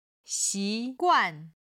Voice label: "xi2guan4", Chinese